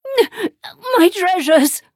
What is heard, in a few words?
hit en